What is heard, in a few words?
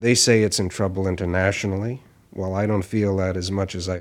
Announcer Process; Tony Schwartz Collection